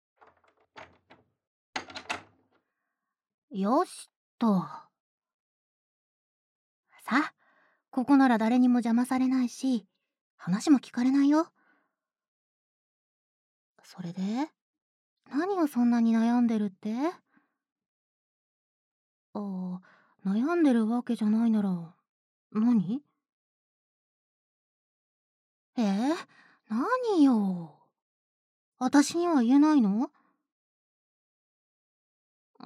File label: Whisper, ASMR